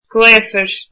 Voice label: "windy", irish